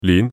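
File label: Cantonese "lin6"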